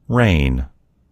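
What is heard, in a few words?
"rain", American English; gcompris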